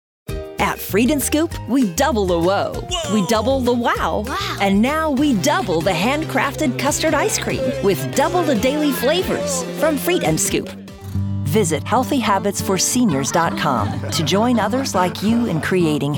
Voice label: Commercial; voice over; Edge Studio